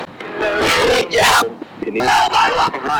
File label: cry for help